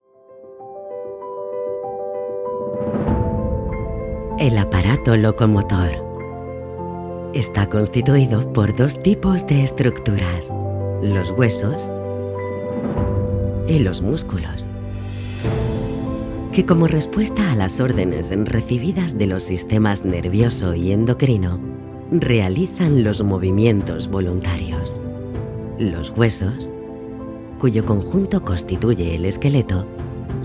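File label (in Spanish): corporativo España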